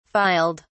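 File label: "filed", English, British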